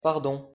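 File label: "pardon", French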